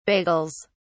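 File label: "bagels", British English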